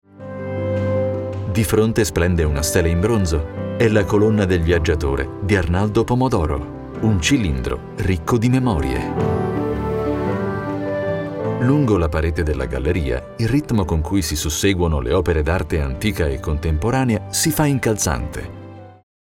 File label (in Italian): Italian documentaries